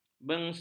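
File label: "bengsit", Malay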